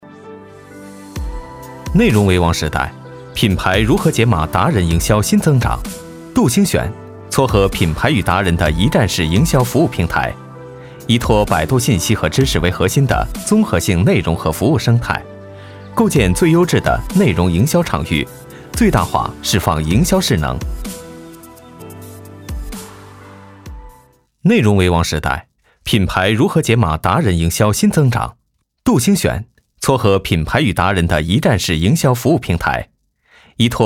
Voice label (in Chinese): 男 年轻讲述